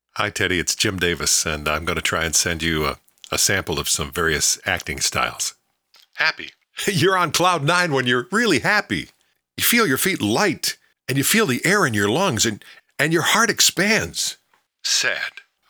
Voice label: COMMERCIAL AUDITON

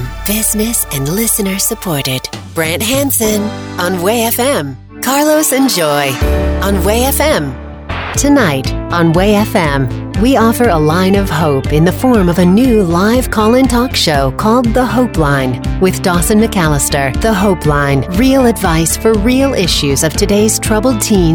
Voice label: Christian Rock Imaging

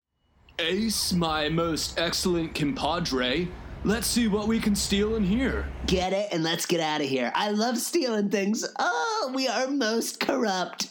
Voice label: Animation VO

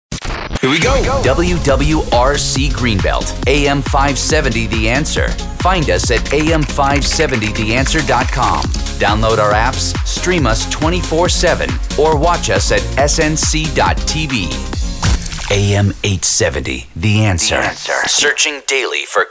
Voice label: News Talk

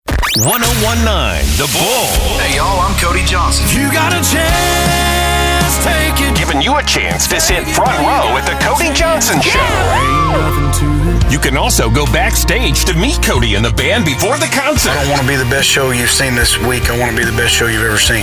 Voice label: Country Radio

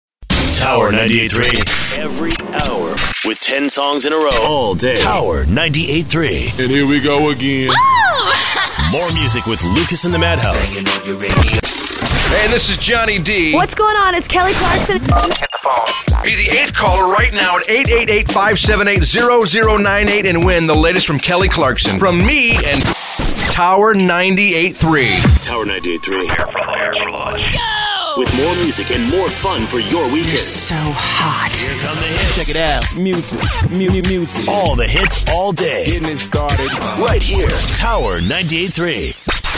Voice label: imaging chr

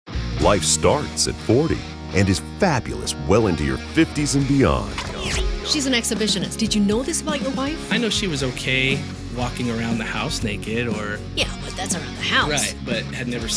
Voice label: trailer; Consenting Adults

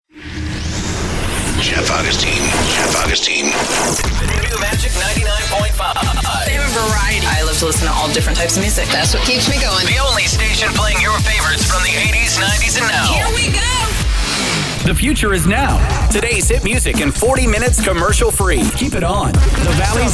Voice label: HOT AC IMAGING

